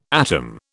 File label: "atom", British